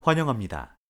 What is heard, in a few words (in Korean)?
"환영합니다", Korean; hello in korean